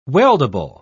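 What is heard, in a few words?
"weldable", British English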